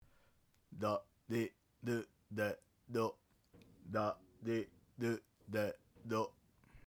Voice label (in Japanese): 鼻詰まりナ行